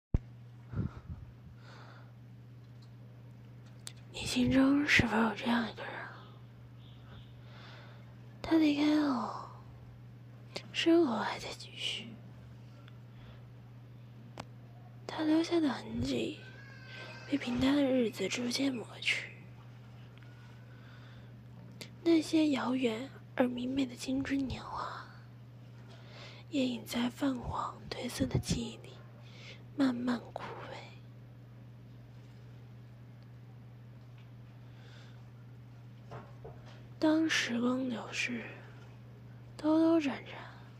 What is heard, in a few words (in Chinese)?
独白; 是否在原来等你独白